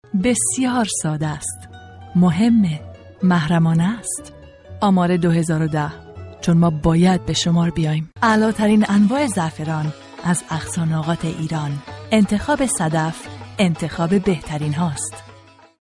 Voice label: Farsi